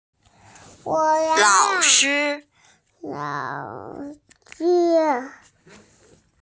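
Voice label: "lao shi", Mandarin Chinese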